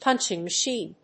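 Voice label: "punching machine", English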